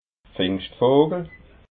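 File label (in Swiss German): "pfingschtvogel", Alsatian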